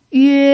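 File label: "yue1", Mandarin Chinese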